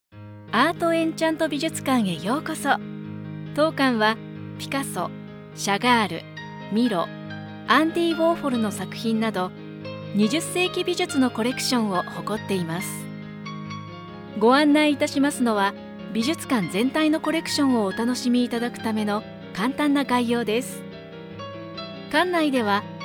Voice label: japanese audio guides; television and radio